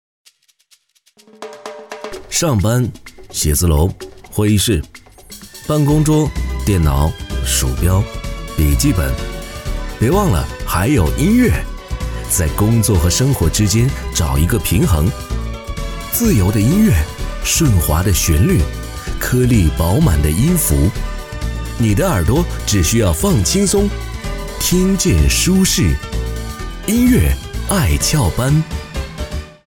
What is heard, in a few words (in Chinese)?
电台轻松音乐; 爱翘班